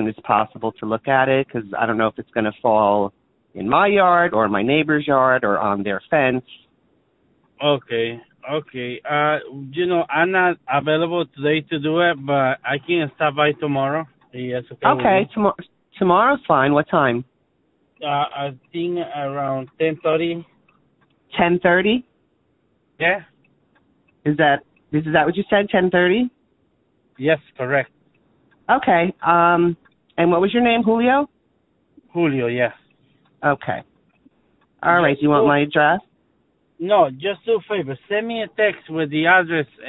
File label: call tree service; 1sparkdigital